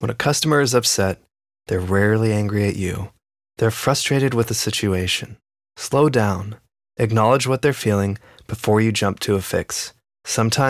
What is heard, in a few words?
elearning customer service; training patient